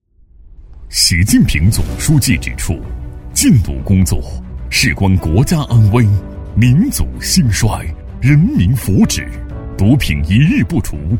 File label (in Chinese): 男 政府专题片 严肃大气浑厚; 男D9-政府专题片-严肃大气浑厚